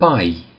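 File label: "straw", Romanian; gcompris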